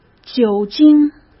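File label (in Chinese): "酒精", Mandarin Chinese; chinese flashcards; hsk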